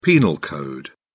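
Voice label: "penal code", English, British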